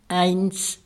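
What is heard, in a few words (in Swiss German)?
"eins", Alsatian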